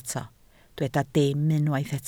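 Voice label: "dim" Welsh, spoken word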